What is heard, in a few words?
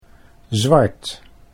"zwart", Dutch